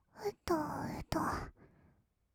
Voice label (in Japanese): うとうと, kawaii voice; ASMR; 色々なワンショット